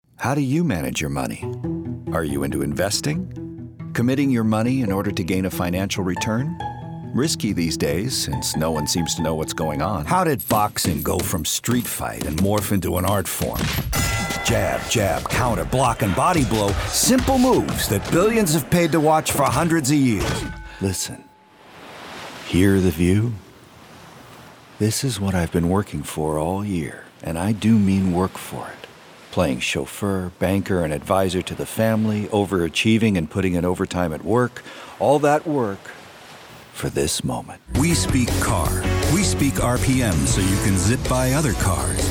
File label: Commercial